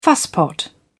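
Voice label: "fusspot", British English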